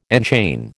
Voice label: "enchain", American

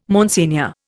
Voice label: "Monsignor", Indian

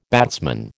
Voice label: "batsman", American

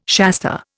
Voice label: "Shasta", Indian

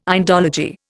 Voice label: "Indology", Indian

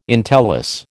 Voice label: "entellus", American

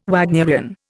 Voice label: "Wagnerian", Indian

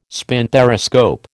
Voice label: "spinthariscope", American

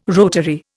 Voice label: "rotary", Indian

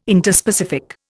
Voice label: "Interspecific", Indian

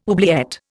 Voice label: "oubliette", Indian

